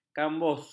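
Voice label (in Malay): "kambus", Malay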